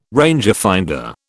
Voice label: "rangefinder", British